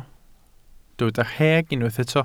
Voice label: "rheg", Welsh